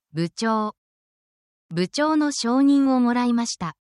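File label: Japanese word, japanese phrases; essential japanese phrases for your workplace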